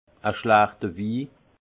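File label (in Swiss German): "schlachterwi", alsace Haut-Rhin; vin; mauvais vin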